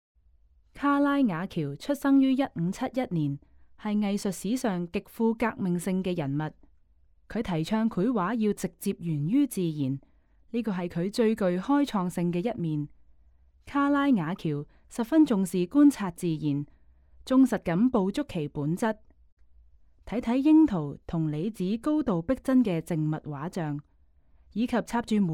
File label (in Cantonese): Canto; audio guide